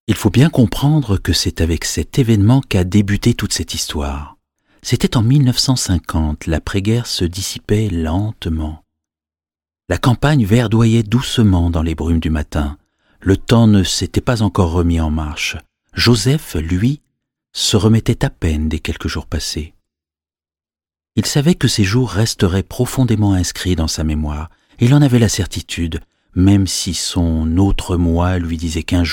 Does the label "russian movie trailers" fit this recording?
No